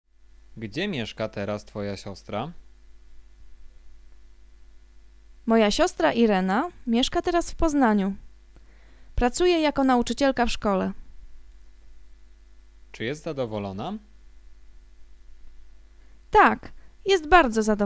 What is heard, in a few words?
conversation; lektorek; firstyear